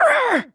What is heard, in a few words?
female; baldurs1